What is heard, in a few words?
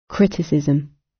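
"criticism" British; Flora and fauna, agriculture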